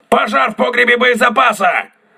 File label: voice message ammunition storage damaged, crew ships russian